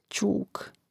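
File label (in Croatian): "ćuk" Croatian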